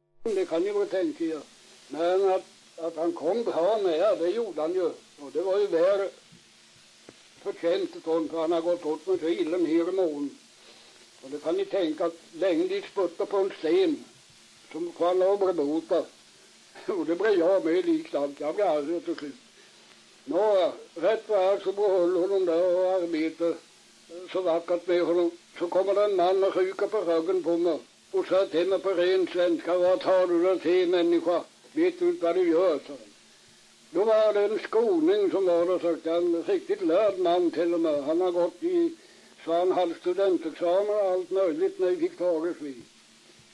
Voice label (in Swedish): dialekter; Folkminnen; Svenska sagor